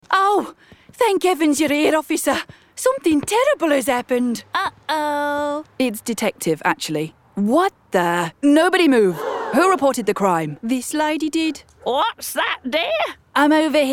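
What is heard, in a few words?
English UK Female Animation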